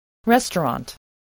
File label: "restaurant", English